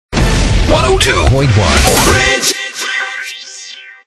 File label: english; 102.1 BridgeFM; angolul